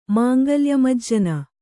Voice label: "ಮಾಂಗಲ್ಯಮಜ್ಜನ", Kannada

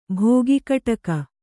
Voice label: "ಭೋಗಿಕಟಕ", Kannada

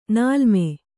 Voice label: "ನೀಲಿಮೆ", Kannada

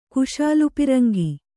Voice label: "ಕುಶಾಲುಪಿರಂಗಿ", Kannada

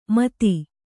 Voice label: "ಮೊತ್ತು", Kannada

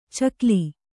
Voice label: "ಚಿಗಿಲ್", Kannada

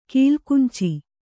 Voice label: "ಕಲಗಂಚು", kannada

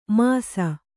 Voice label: "ಮಾಸಿ", Kannada

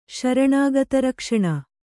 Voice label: "ಶರಣಾಗತರಕ್ಷಣೆ", Kannada

